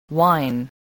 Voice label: "wine", voice, english